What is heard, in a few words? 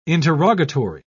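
"interrogatory", British English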